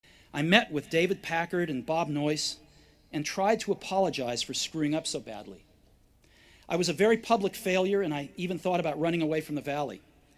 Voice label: Commencement Address; Steve Jobs; 2005; Stanford